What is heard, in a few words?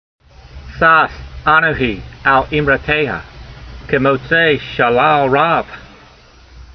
voice, hebrew language; Psalm 119:162; sas a'nohee al-eemrateyha, kemotsay shalal rav